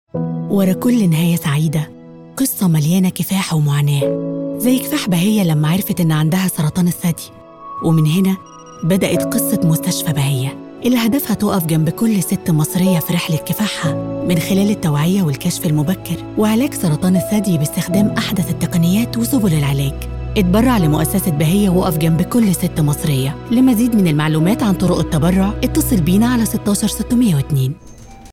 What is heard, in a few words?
arabic others